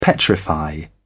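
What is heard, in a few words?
"petrify", English, British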